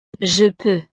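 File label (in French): "je peux", French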